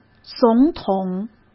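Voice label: "总统", Mandarin Chinese; hsk; chinese-flashcards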